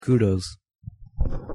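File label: pronunciation "kudos", English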